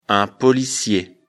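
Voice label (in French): "policier", French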